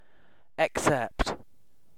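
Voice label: "except", British English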